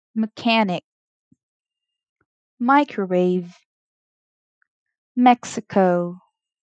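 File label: Three Syllable Initial m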